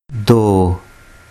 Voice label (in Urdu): "do", Urdu